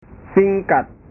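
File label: "singkat", Indonesian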